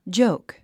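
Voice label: "joke", English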